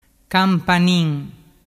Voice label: "campanin", dialettu

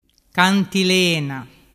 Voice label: "cantilena", dialettu

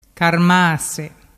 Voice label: "carmase", dialettu

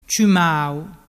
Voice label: "ciumau", dialettu

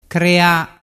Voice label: "crea", dialettu

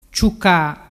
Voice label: "ciuca", dialettu

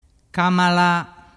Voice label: "camala", dialettu

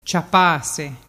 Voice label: "ciapase", dialettu

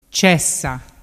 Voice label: "cessa", dialettu

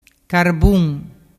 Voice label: "carbun", dialettu

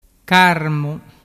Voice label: "carmu", dialettu

